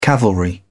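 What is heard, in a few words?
"cavalry", British English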